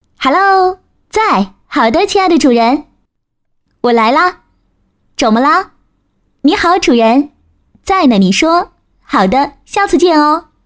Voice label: 女, 语音提示, 活泼

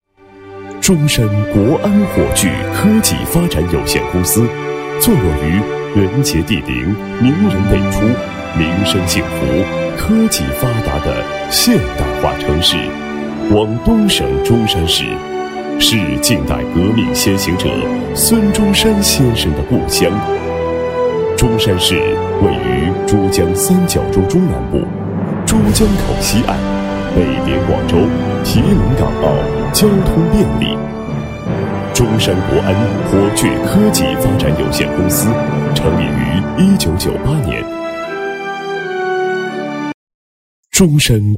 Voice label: 男 企业; 中山国安火炬科技发展有限公司

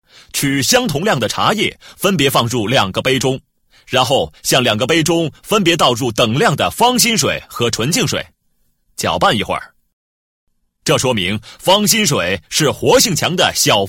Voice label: 男2 电视购物样音, 国语男声配音

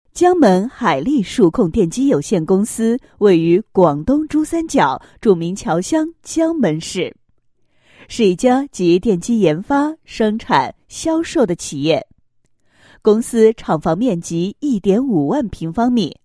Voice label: 女 企业 宣传; 海力数控电机有限公司

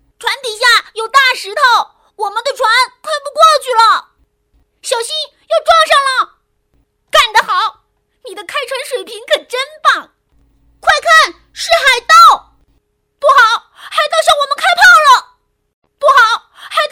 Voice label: 女, 童声; 小伙伴

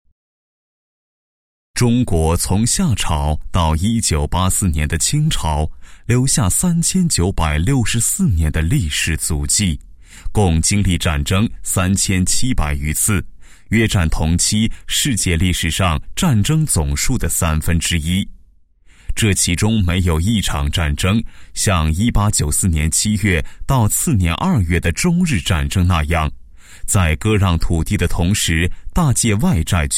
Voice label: 男 专题 历史纪录片, 国语男声配音

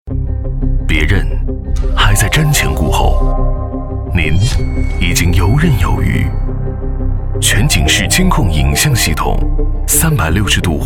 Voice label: 男, 汽车广告; 英菲尼迪

